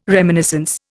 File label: "reminiscence", Indian